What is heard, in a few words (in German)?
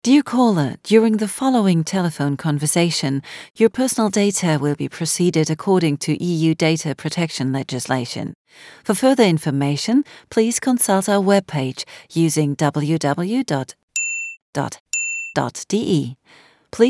Telefonanlage englisch